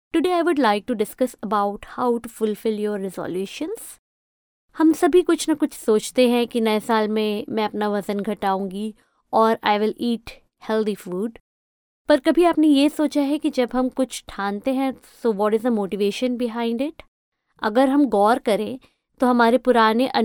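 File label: Hinglish India, female, voice over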